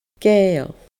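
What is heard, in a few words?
"Gaidheal", Scottish Gaelic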